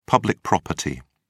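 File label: "public property", British English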